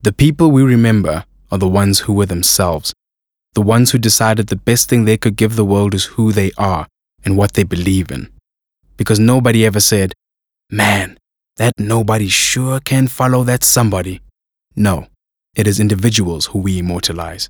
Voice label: English Corporate Dramatic

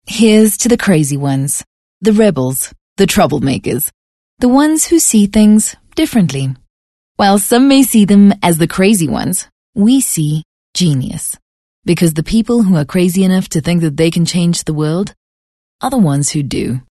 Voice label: English corporate

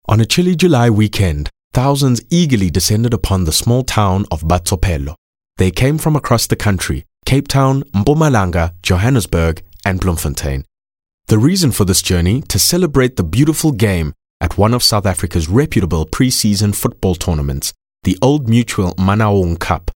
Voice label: English Corporate; OSM Talent

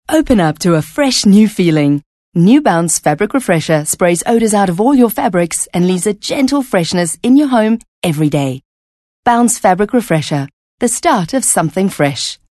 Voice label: English Bright

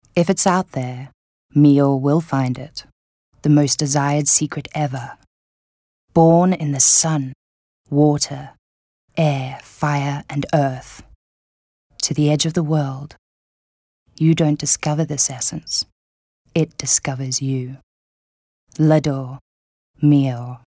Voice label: English Intimate

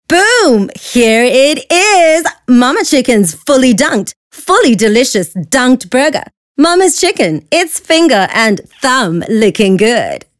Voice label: English Skill Promo; OSM Talent

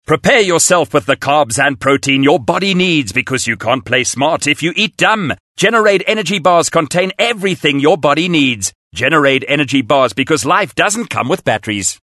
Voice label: English Hardsell

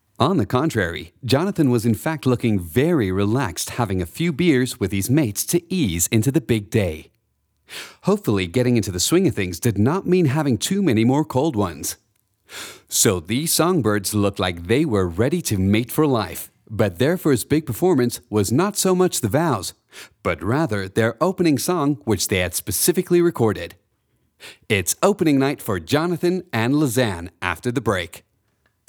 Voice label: English American Accent

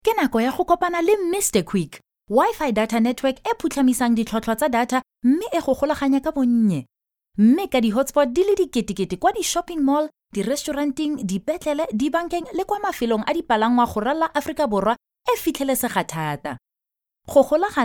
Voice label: Tswana Conversational

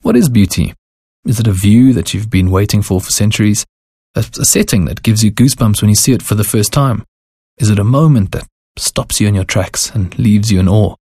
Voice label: English Conversational Soft Sell

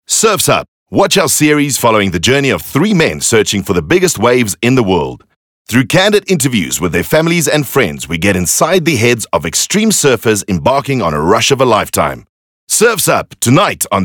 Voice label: English Skill Promo

